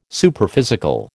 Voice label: "Superphysical", American

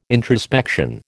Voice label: "introspection", American

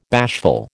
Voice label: "bashful", American

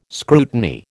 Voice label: "scrutiny", American

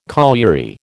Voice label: "colliery", American

